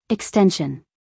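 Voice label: "extension", American English, female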